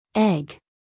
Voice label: "egg", us female; everyone-can-use-english